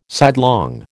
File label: "sidelong", American